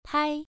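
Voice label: "pai", tone 1, pronunciation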